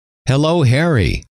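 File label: "hello", male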